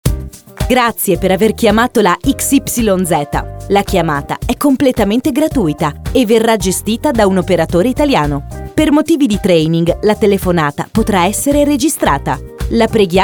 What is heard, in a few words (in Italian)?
Voce Segreteria telefoncica femminile